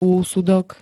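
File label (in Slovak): "úsudok", Slovak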